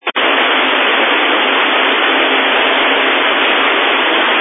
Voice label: Family Radio Service (FRS); Waco Surf Park